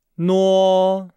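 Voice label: "nuo", Chinese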